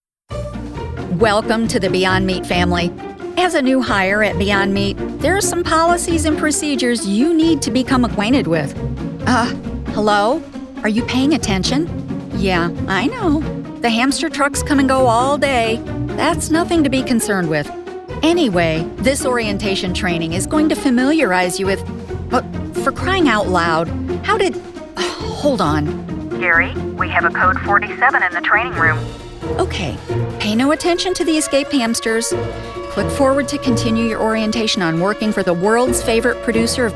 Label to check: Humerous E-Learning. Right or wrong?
Right